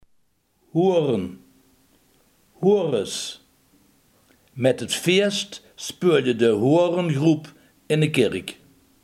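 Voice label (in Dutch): hoorn, Limburgse gesproken woordenboeken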